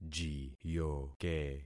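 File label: "jiyoke", concatenated syllables; Affixing experiment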